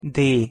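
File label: "d", Hungarian pronunciation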